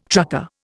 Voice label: "trucker", Indian

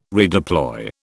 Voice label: "redeploy", British

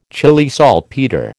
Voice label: "Chile saltpetre", American

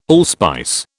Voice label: "allspice", British

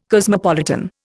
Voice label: "cosmopolitan", Indian

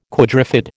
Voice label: "quadrifid", American

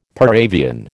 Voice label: "par avion", American

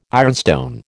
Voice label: "ironstone", American

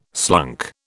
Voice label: "slunk", British

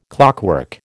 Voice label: "clockwork", American

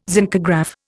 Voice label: "zincograph", Indian

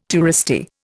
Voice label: "touristy", Indian

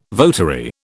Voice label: "votary", British

